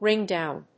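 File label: "ringdown", English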